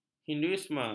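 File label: "hinduisme", Malay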